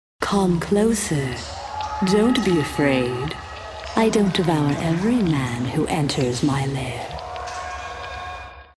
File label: Lair Creature